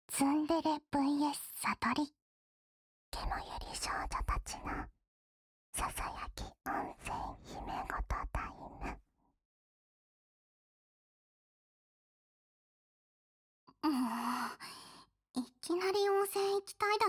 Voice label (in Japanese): ケモ百合少女達のささやき温泉秘め事タイム（心の声あり）【声のみ】; ツンデレ VS サトリ